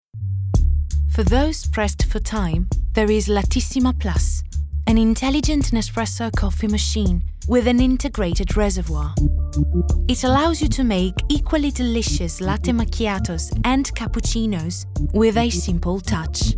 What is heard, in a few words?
female, Italian, English with Italian accent commercial; nespresso